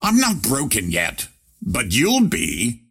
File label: attack pain; ringmaster